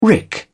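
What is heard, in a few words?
"rick", British English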